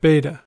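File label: "beta", American English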